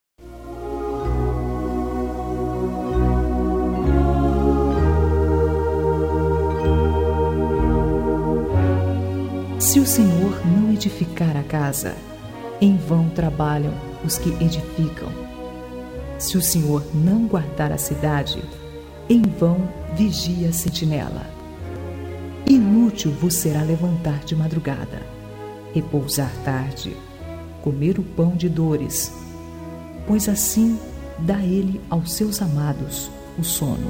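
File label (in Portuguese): Religiosa A Graca de DEUS, Feminino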